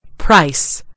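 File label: "price", American English; gcompris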